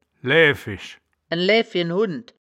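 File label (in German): "laeaefisch", Wittlicher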